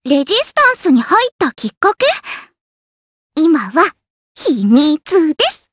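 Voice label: vox dia Japanese; Rehartna; kingsraid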